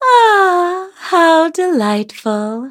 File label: levelup, en; Cookie Run